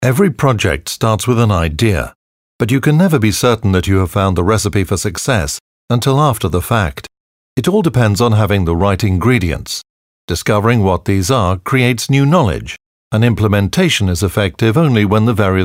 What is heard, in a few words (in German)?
uk male filmvertonung sprecher